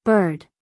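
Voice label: "bird", American English female; everyone-can-use-english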